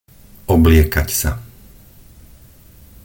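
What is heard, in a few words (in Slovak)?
"obliekať sa", Slovak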